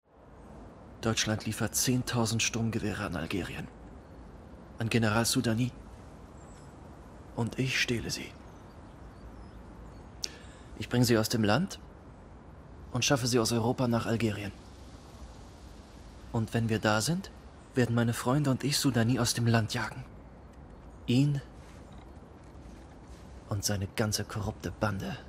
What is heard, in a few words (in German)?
Synchron Revolutionaer Terrorist Streit; ARTE; Algiers Confidential